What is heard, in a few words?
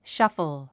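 "shuffle", English word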